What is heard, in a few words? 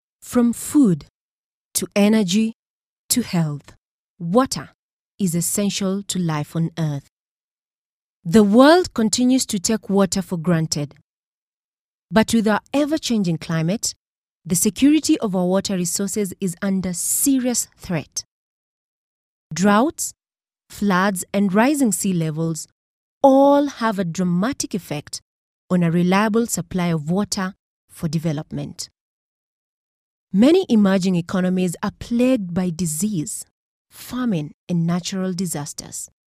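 English Afrikaans Female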